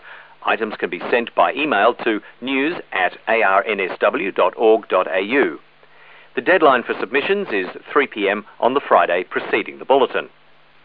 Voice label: Part of an Amateur Radio transmission from an Unknown place in Unknown language; HF